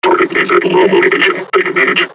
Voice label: taunt, combine charger; Half Life Alyx